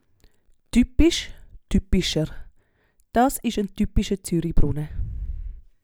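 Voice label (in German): typisch, Swiss German; Wortschatz Kapitel 8; Kapitel 8 Hauptwortschatz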